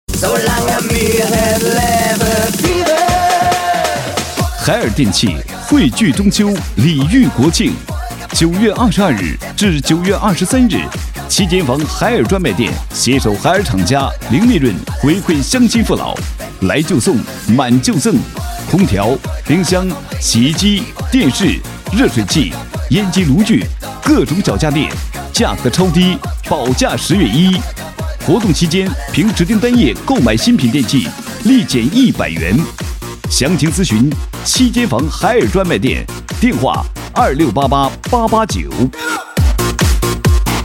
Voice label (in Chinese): 男, 促销, 家电类; 海尔